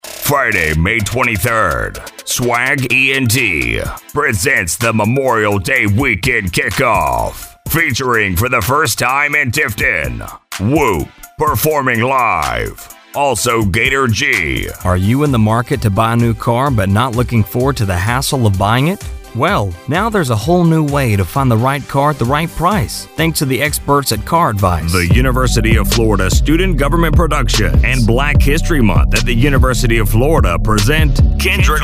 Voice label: RADIO COMM

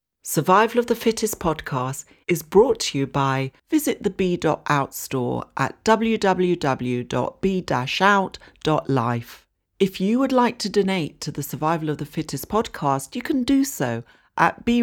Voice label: Podcast

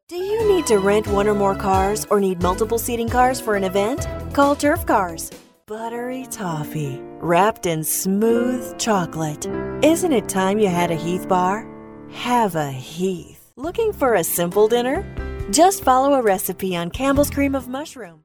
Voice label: Commercials Demo

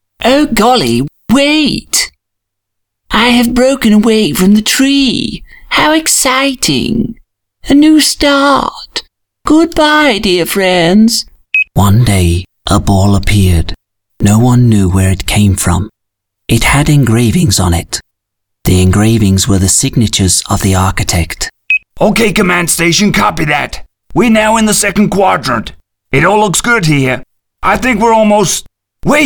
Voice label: character styled voiced reads

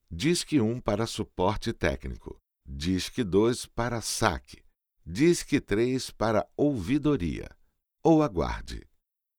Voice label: Virtual Voice Phone

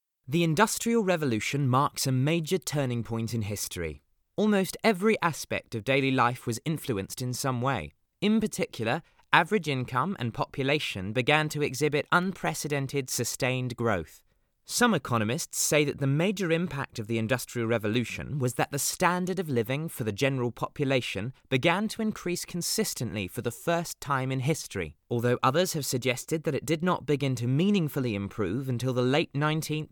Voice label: Info UK

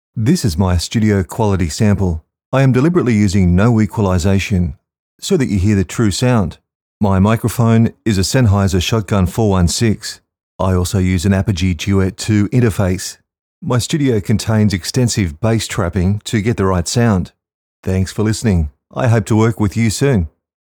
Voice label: studio

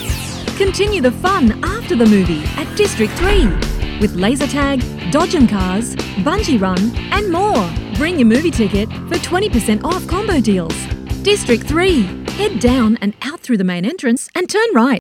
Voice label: Cinema Ad; District 3

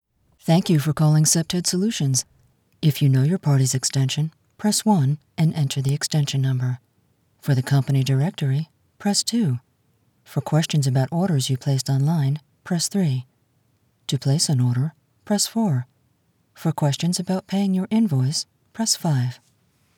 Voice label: Telephony

